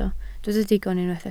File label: "digon", Welsh